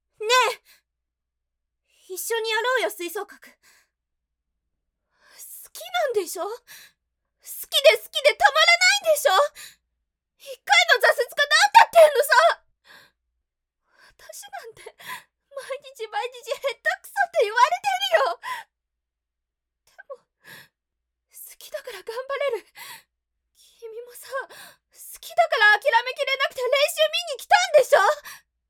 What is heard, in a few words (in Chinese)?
外籍日语女 角色 热血少女